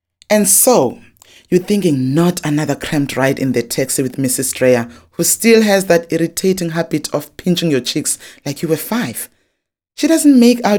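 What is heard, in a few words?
english South African female narration; voice demos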